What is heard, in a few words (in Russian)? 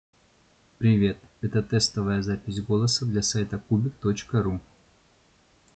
test mikrofona; test-mikrofona-ninkear-t40